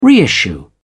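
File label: "reissue", British English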